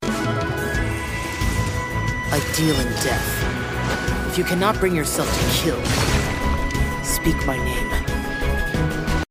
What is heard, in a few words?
Teen boy hero dark brooding smart serious deadly